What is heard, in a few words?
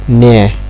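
"near", Nigerian Pidgin, male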